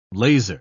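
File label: "laser", English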